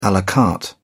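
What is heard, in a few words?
"a la carte", British English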